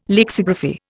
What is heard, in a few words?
"lexigraphy", Indian